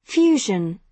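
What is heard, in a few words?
"fusion", British English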